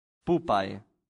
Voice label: "pupae", latin; santillana